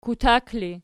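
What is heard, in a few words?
"kutak", ergative, Archi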